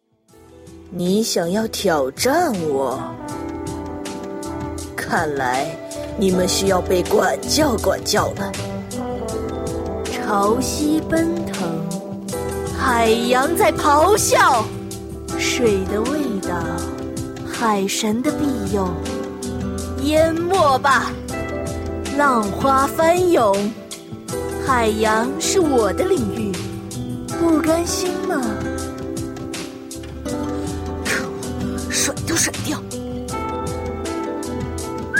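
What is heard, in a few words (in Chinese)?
female, yujie nvwang (mature queen)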